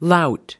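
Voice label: "lout", US English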